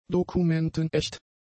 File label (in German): "dokumentenecht", German; adjectives